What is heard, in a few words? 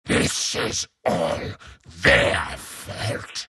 troll death; troll warlord